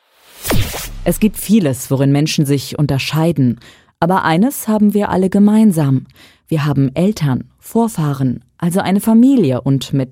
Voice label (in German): Hörbuch